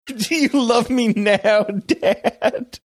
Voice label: laughing saying do you love me now dad